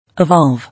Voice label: "Evolve", English